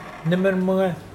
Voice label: hungry, Mokilese